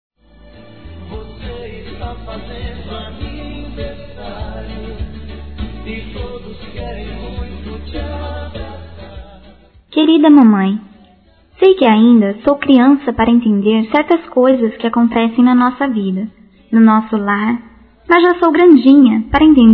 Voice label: Dia das Mães Infantil Feminino; Aniversário Infantil; Telemensagens

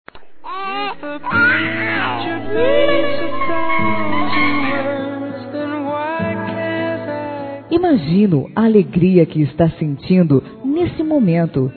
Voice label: Parabéns à Vovó Feminino; Telemensagens; Felicitações; Telemensagens 2017

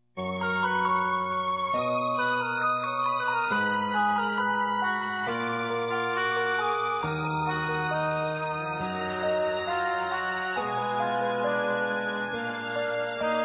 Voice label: Aniversário Neto Masculino; Aniversários; Aniv Familiar

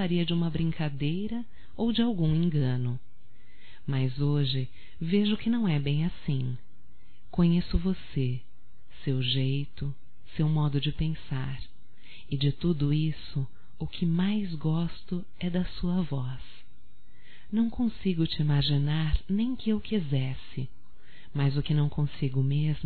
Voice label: NAMORO POR TELEFONE - F; Românticas

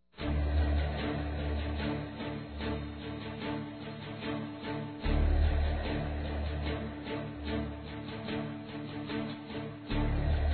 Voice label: ROMANTICO M, Telemensagens; ANIV. Evangelico

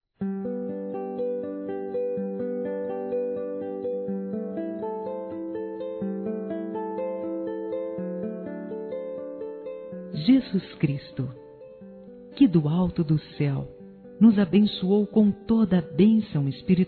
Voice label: CRISMA F, Telemensagens, Gospel; fem; Telemensagens 2017

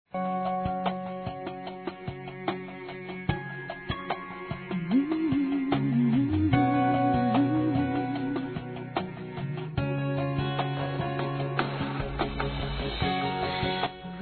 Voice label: ROMANTICA F, Telemensagens Dia dos Pais; Telemensagens 2017

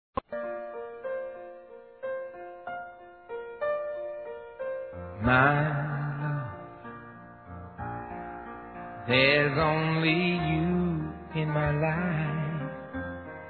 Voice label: FELICIT.DIA CASAMENTO, Românticas, fem

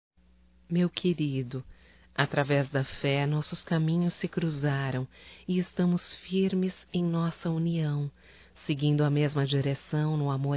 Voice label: EVAN ROMâNTICO, Telemensagens, Evangelico, fem; Telemensagens 2017

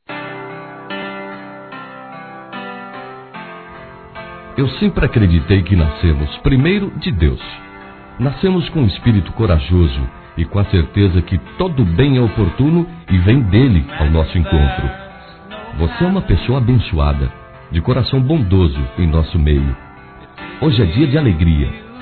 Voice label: Oração no Aniversário Masculino

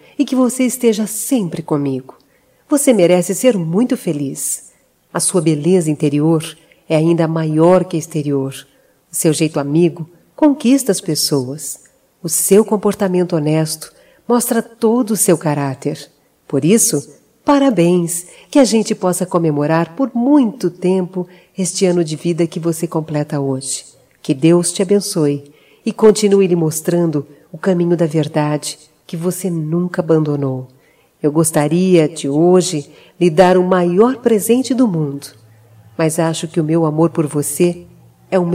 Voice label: Aniversário Romântico Feminino; Telemensagens 2017

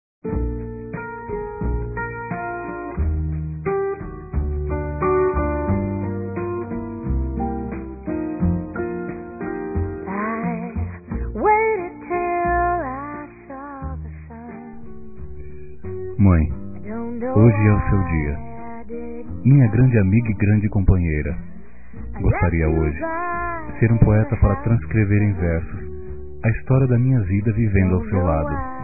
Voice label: Dia das Mães Geral Masculino; Telemensagens 2017

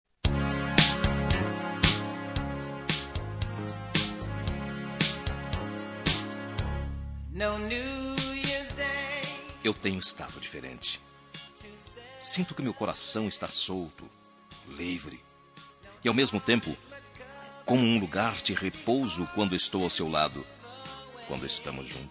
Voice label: DECLARACAO DE AMOR MASC; Telemensagens 2017

